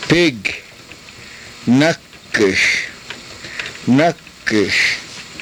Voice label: "nakish", karuk